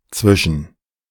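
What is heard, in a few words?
"zwischen", German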